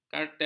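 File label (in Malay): "kartel", Malay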